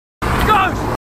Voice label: marines, aussie; h2dialogue